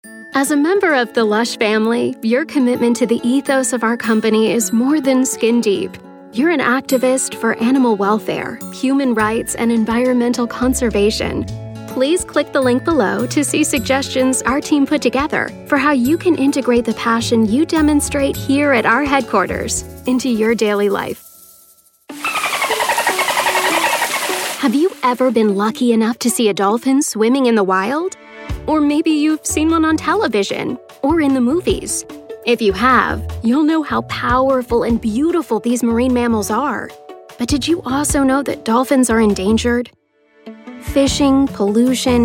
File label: american voice actor e-learning